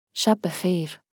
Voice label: Farsi Good night; Greetings

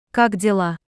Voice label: Russian How are you; Greetings

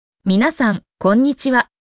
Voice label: Japanese Greetings everyone

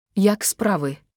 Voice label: Ukrainian How are you; Greetings

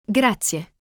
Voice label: Italian Thank you; Greetings

